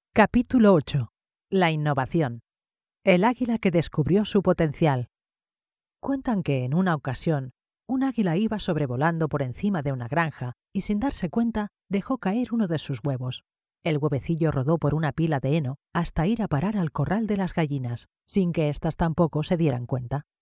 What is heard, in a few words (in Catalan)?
audiolibro, locutora